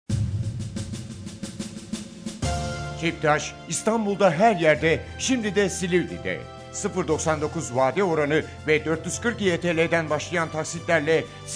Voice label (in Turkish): radyo reklam; KIPTAS